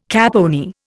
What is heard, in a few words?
"caponier", Indian